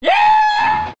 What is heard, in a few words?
"yeah" high pitch